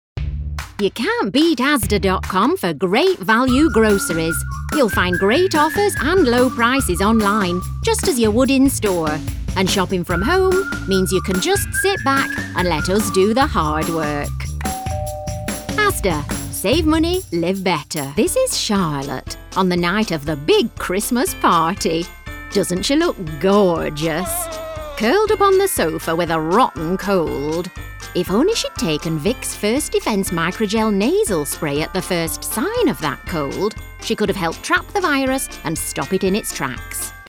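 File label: English UK commercial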